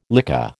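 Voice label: "lycée", American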